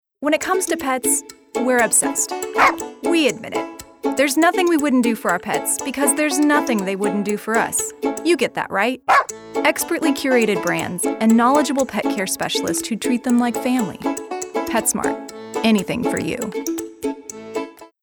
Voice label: Friendly